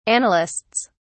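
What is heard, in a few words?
"annalists", British English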